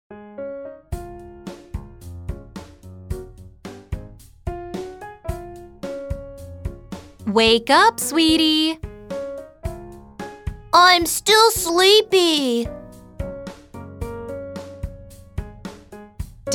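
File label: dialogue English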